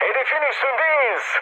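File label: Turkish near waypoint, mood med, speech; War Thunder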